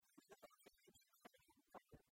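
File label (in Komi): кымын морт велӧдчӧ тіян группаын, Komi, female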